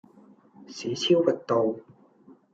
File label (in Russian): Голоса, Гонконгский